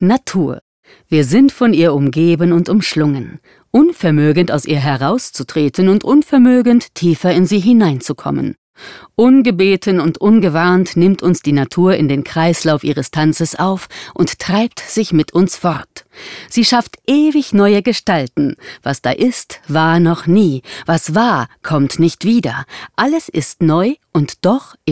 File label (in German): Dokumentation Imagefilm